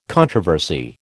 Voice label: "controversy", American